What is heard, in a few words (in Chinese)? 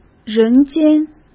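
"人间", Mandarin Chinese; hsk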